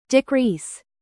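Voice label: "produce", verb, British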